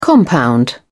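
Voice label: "compound", English, British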